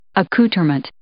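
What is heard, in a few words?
"accoutrement", English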